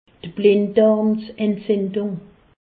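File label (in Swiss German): "blindarmentzindung", Haut Rhin; medecine; appendicite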